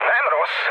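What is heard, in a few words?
Hungarian trick mood low, speech; War Thunder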